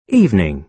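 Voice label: "evening", British English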